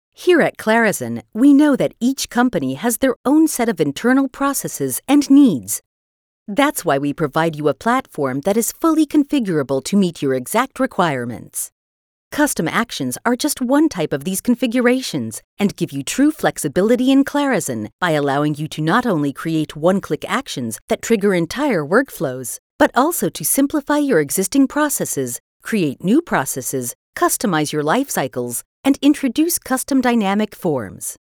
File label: english american corporate videos